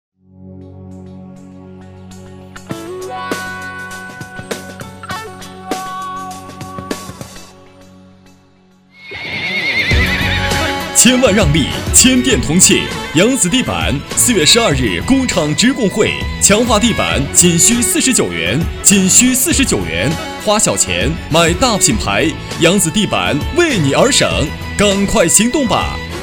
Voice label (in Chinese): 男 激情; 扬子地板